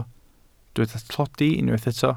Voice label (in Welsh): "tlodi", Welsh